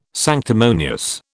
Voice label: "sanctimonious", British